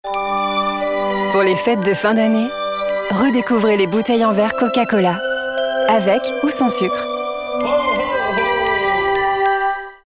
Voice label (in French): pub tag souriant simple chaleureux; COCA COLA x Noel